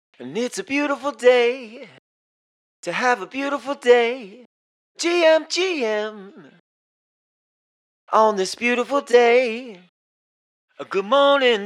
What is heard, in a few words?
MAIN VOX 91BPM